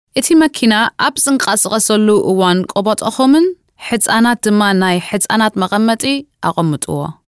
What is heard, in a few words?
female Tigrinya; english eritrean and german